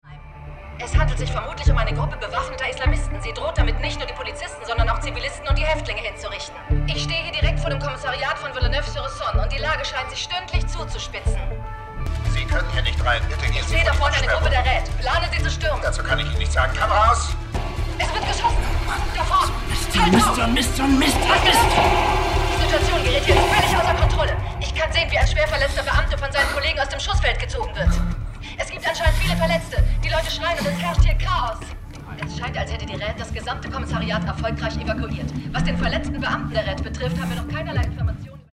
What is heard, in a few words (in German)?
Reporterin (Action); Synchron - rasante Reporterin